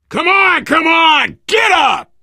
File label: revive friend loud, player survivor voice biker